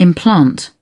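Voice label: "impet", British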